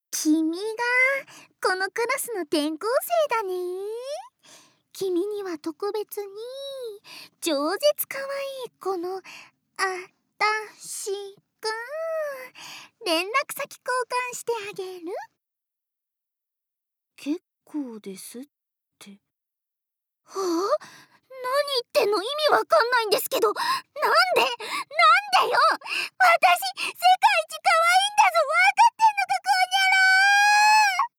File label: School girl